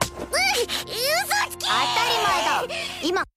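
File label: "usotsuki", Japanese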